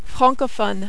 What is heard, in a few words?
"francophone", French